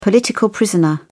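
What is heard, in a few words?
"political prisoner", British English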